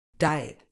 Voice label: "diet", uk